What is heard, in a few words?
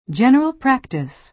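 "general practice", American English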